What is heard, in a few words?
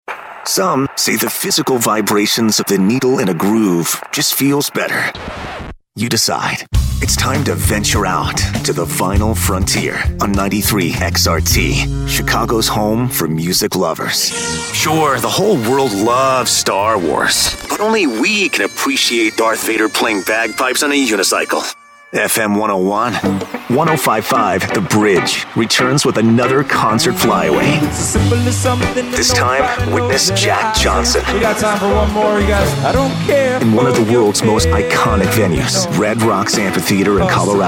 radio men aaa, radio imaging